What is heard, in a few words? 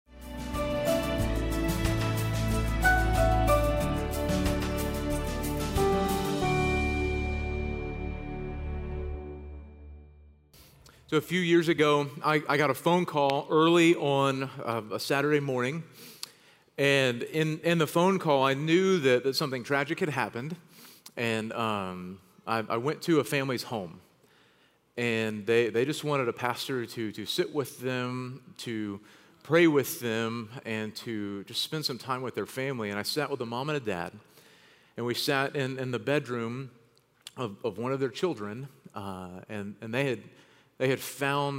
Sermon English